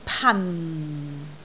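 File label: "pan4", Cantonese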